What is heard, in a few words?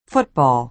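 "football", American English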